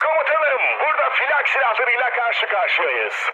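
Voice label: damaged aa, mood high, Turkish; War Thunder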